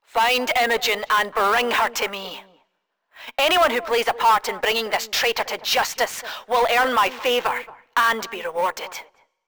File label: rant; campaign wildfire; imogen